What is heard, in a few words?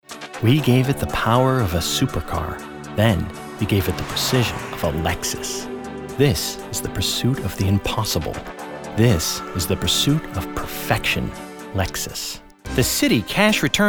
Commercial